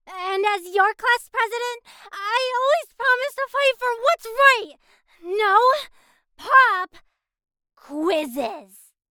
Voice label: Character Sample Youthful Bold